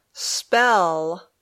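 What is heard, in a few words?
"spell", English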